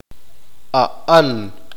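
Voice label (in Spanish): "él", Spanish, male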